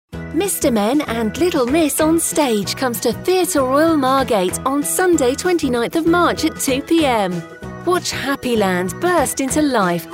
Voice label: CHILDRENS RADIO ADVERT